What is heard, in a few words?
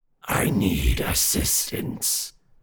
undead pain vocal i need assistance